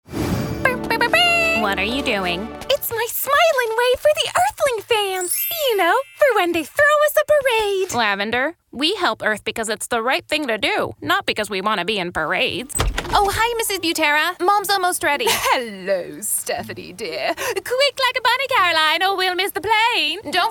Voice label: character